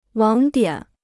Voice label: "网点", Chinese